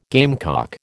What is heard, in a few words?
"gamecock", American